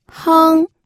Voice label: "heng1", pinyin audio

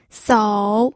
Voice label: "sou", pinyin

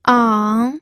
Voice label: "ang3", pinyin

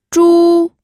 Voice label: "zhu1", pinyin

